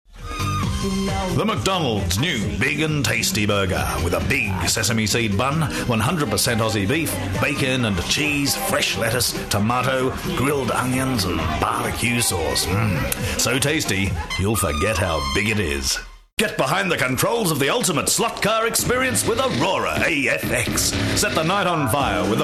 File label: hard sell